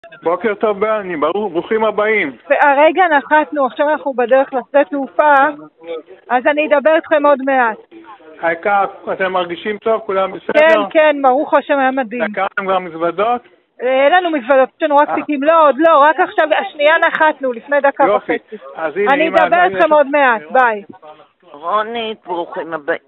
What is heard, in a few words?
Call recording